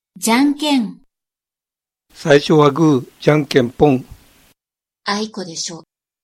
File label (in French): Prononciation de janken